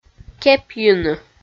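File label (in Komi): "кепйыны", Komi, female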